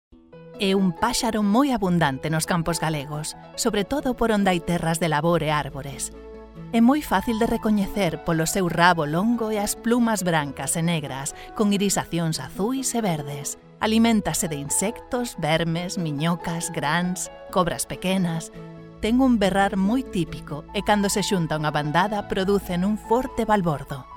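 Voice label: Galician upbeat, Spanish female voiceover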